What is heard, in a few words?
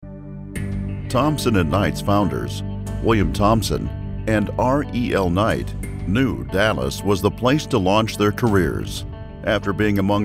LAW FIRM RECRUITMENT VIDEO